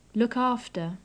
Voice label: "look after", English